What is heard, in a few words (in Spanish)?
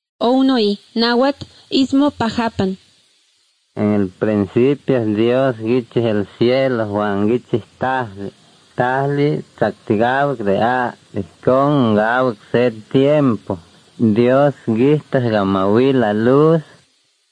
spanish mexico